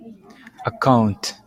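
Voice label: "account", Japanese, male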